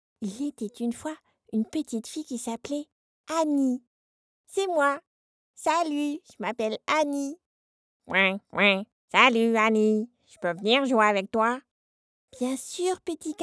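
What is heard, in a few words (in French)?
French, female, character; voice demos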